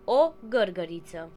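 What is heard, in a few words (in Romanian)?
ladybug desc romanian; insects; cartoon; tuxpaint-stamps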